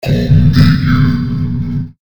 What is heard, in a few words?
voice continue low